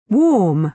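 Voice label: "warm", British English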